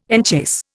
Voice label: "enchase", Indian